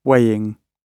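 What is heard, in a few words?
"weighing", British English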